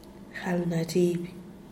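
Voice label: "galnazhiby", susto, Zapotec, Díaz Ordaz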